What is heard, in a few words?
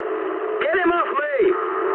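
aircraft damaged mood high, speech English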